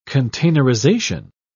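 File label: "containerization", British English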